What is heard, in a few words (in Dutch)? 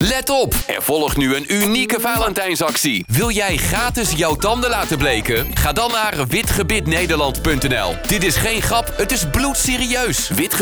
Spot